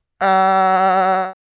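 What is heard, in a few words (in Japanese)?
"あ", Japanese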